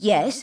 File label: Female; baldurs1